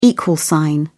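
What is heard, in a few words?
"equal sign", British English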